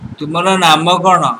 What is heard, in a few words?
name, odia